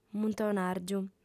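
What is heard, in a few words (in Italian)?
"muntonàrgiu", lingua sarda, fem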